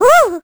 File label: vo confused; Crypt of the NecroDancer